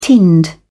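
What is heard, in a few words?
"tinned", British English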